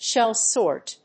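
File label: "Shellsort", English; シェルソート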